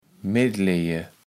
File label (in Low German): "Metleje", kaevels platt